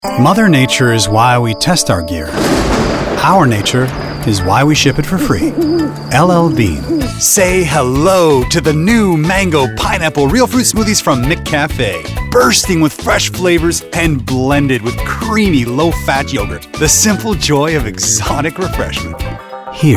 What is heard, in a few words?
english canadian commercial